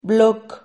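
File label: "bloc", Spanish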